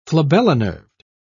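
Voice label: "flabellinerved", British English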